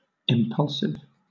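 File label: "impulsive", British English; pronunciations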